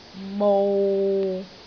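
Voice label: "mou6", Cantonese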